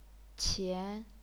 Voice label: "qian2", chinese pinyin

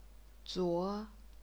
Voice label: "zuo2", chinese pinyin

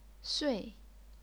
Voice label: "sui4", chinese pinyin

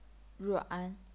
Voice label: "ruan3", chinese pinyin

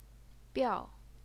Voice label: "biao4", Chinese pinyin

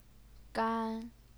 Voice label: "gan1", chinese pinyin

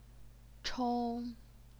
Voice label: "chong1", Chinese pinyin

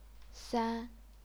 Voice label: "san1", chinese pinyin

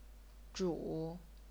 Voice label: "zhu3", chinese pinyin

